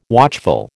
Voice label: "watchful", American